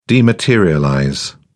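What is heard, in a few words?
"dematerialize", British English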